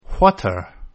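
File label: "what're", English contraction; Contractions Pronunciation Practice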